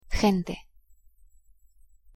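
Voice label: "gente", Spanish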